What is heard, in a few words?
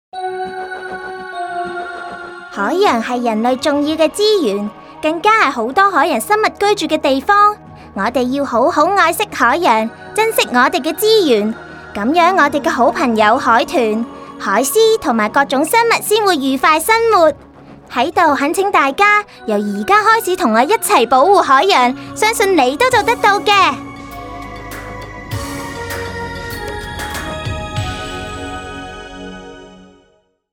Girl Voice